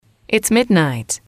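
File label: its midnight, English, female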